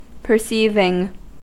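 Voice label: "perceiving" English, US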